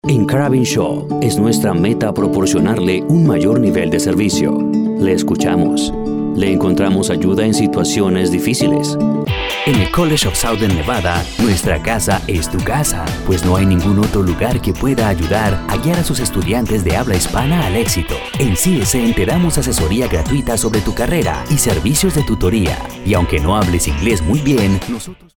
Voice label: Latin American Spanish